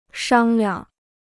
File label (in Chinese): "商量", Chinese